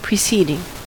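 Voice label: "preceding", US English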